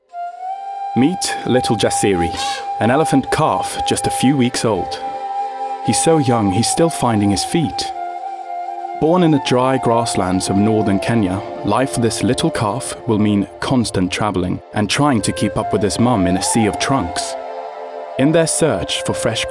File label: Documentary